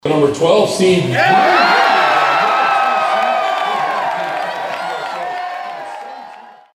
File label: Announcement